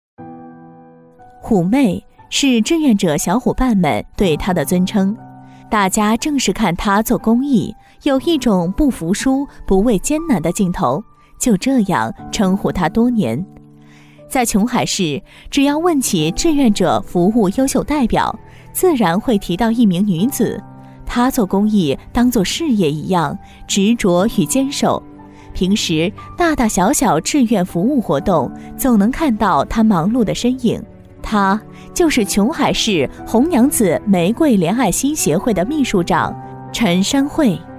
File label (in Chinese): 女, 宣传片, 大气深情; 成熟知性